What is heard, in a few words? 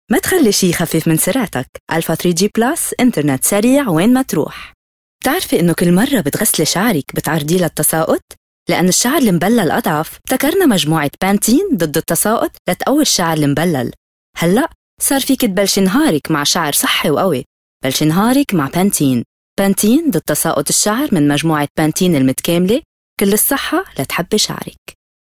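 Arabic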